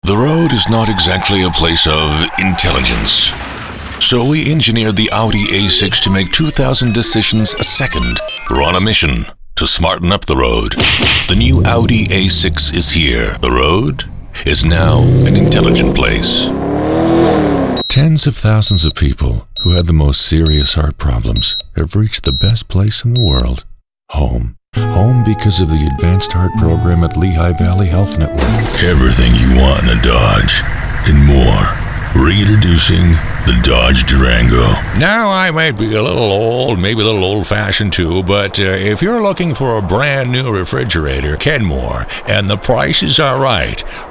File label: Commercial, Male voices